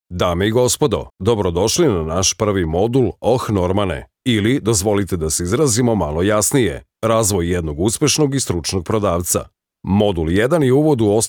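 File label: Serbian, male, elearning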